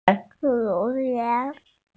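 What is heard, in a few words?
"hu2die2", Mandarin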